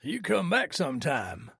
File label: trader male like sale accepted